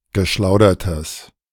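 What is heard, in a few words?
"geschlaudertes", German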